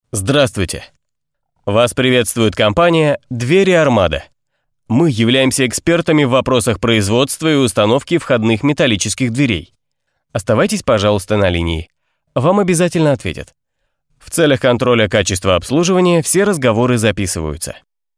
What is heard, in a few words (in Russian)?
avtoinformator telefonniy golos